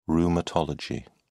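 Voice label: "rheumatology", British English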